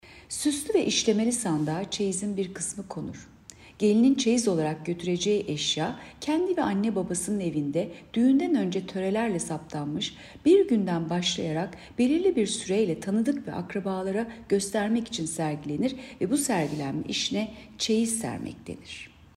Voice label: turkce